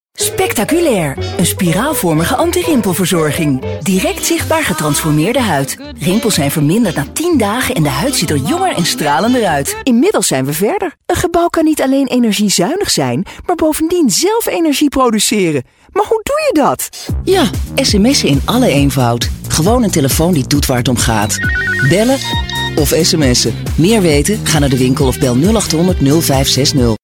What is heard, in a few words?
nl energetic